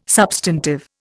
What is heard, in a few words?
"substantive", Indian